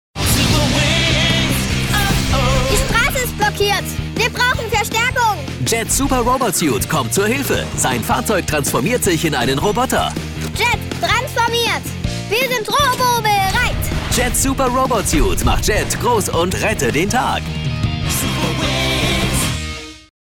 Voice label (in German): kinderstimme spot; super wings